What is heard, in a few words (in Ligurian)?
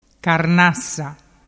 "carnassa", dialettu